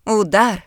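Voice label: beat, Ukrainian; gcompris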